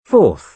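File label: "fourth", British English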